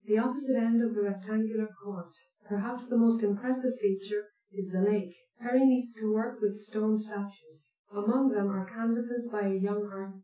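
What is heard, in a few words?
female speech; SiSEC10; underdetermined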